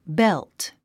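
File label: "belt", English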